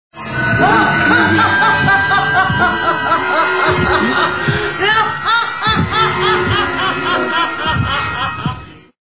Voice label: old lady